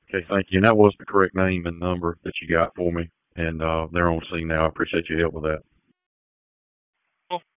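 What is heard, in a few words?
Fire Department HQ Dispatch; RFD; wake; p25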